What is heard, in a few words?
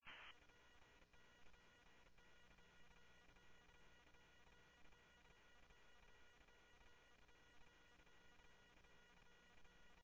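police scanner radio, Phoenix PD; ThompsonPeakHigh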